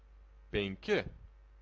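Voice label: "penki", Lithuanian, male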